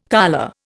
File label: "colure", Indian